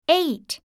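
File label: "eight", English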